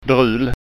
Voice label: "drul", skanska

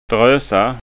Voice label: "drosa", skanska

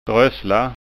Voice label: "drosla", skanska

